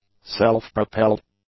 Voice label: "self-propelled", English; adjectives